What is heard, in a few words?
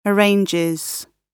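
"arranges" British English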